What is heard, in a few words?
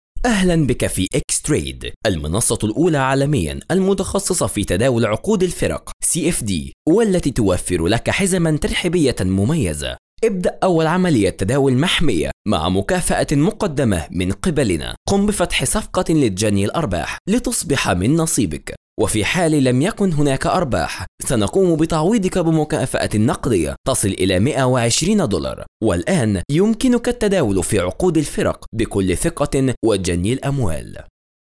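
Arabic, male; lektoring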